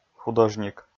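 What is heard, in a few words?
"художник", Komi, male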